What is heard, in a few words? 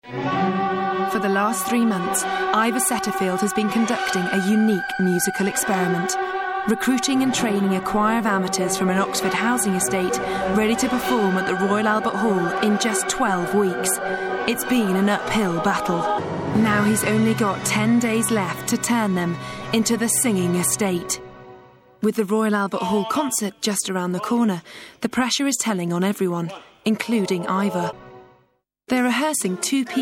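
narration documentary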